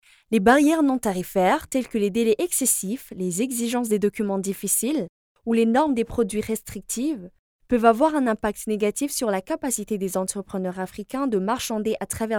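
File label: African French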